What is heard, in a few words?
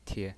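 "tie", pinyin voice, neutral tone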